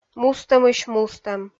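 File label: "мустӧмысь-мустӧм", Komi, female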